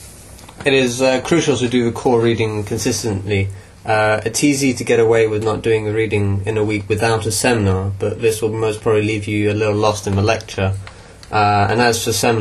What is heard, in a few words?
student voice